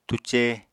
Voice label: "túcé", Apatani